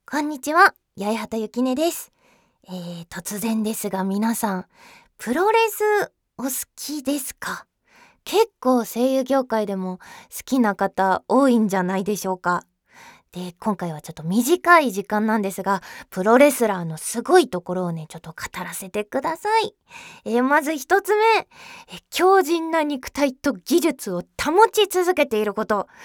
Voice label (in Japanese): フリートーク; jtb-ent-voice